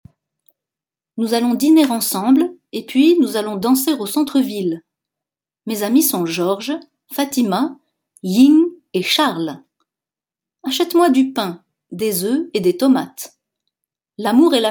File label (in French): intonation phrases declaratives; Polynesie